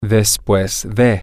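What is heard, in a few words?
after, spanish, male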